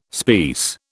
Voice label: "speiss", British